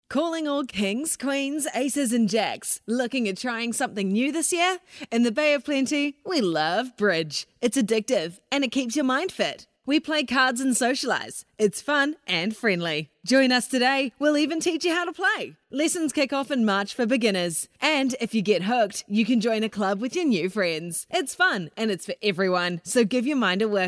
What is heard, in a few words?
Radio ad Learn Bridge